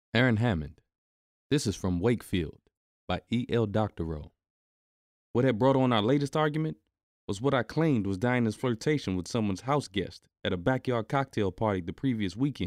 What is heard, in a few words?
Male Female Dialog, audiobooks